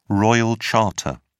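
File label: "royal charter", British English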